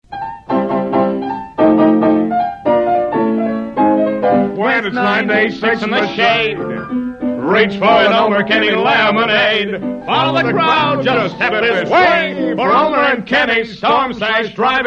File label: promos; WOWO; Lemonade Stand; early 1968